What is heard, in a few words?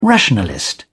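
"rationalist", British English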